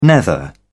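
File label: "nether", British English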